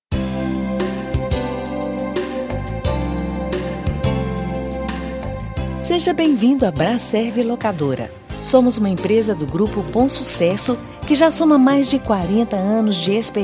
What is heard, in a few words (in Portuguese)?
espera descontraido; braserv